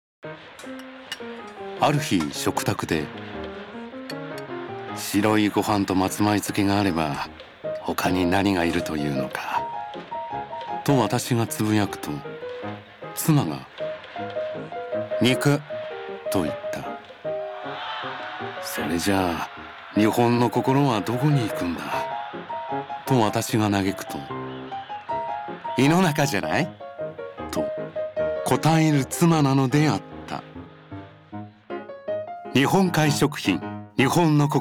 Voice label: male